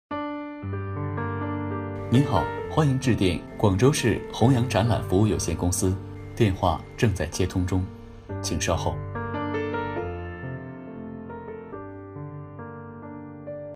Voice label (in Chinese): 【彩铃】彩铃男